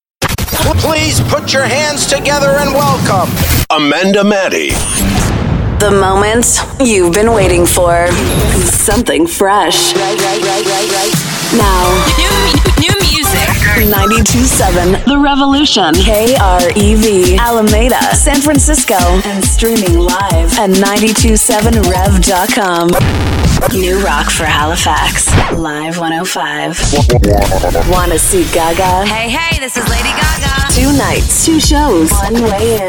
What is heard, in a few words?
Imaging